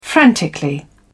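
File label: "frantically", English, British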